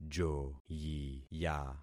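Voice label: "joyiya", concatenated syllables; Affixing experiment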